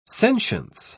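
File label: "sentience", British English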